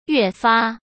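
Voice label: "越发", Mandarin Chinese; chinese-flashcards; hsk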